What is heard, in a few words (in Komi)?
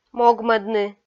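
"могмӧдны", Komi, female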